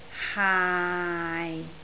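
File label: "haai6", Cantonese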